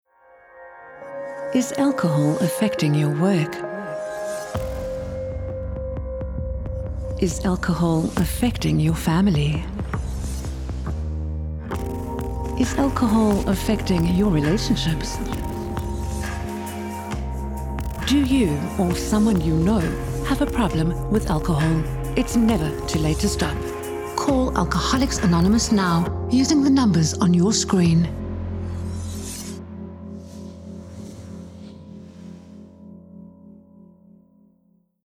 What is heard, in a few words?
female English South African public commercial; aa